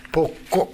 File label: "bokko", minamiyamagata